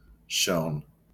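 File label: "shone", American English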